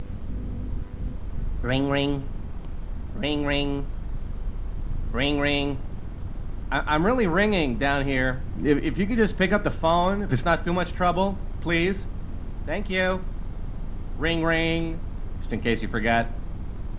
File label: Ringtone english